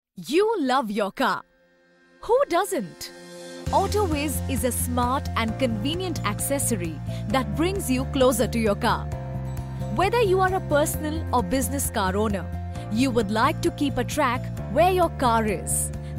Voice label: english indian corporate videos, voice actors; professionelle indische Sprecherin

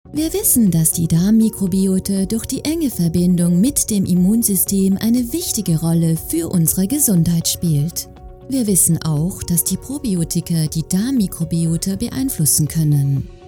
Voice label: german narration

